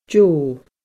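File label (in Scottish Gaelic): "deagh", Scottish Gaelic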